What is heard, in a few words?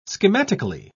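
"schematically", British English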